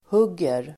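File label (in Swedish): "hugger", Swedish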